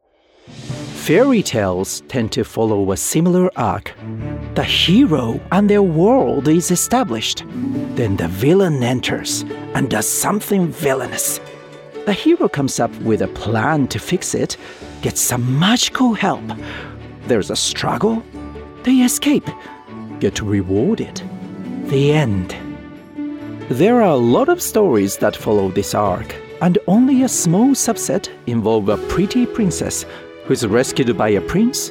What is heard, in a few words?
documentary English; Netflix Cinderella